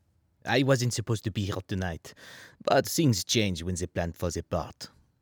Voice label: French Character